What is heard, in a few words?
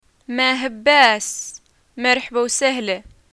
"greeting farewell", arabic